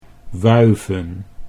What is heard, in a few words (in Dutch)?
"wuiven", Dutch